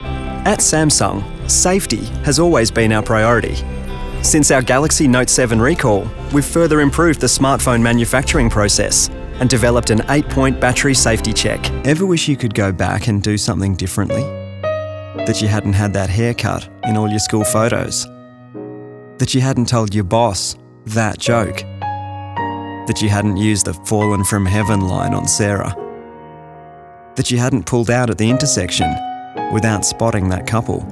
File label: STORYTELLING